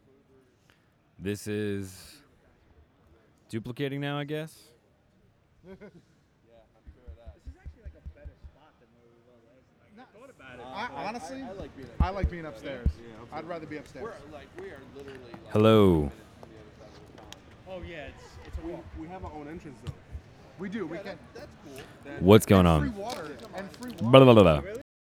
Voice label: Male Radio; NYCC 2013